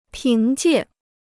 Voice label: "凭借", Chinese

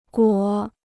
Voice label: "裹", Chinese

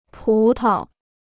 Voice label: "葡萄", Chinese

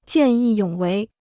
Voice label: "见义勇为", Chinese

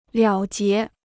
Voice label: "了结", Chinese

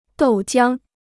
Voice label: "豆浆", Chinese

